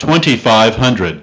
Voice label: altitude 2500, gpws